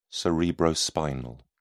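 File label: "cerebrospinal", British English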